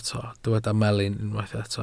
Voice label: "melin", Welsh

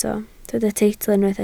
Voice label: "teitl", Welsh

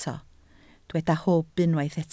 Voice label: "hwb", Welsh

